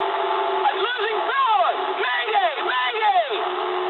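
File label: English damaged engine mood high; War Thunder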